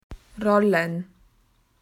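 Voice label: "to roll", Australian English; PictureDictionary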